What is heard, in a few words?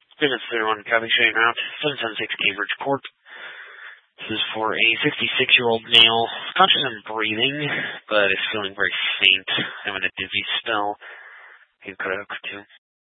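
Fire Dispatch, scanner audio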